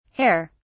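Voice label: "herr", British English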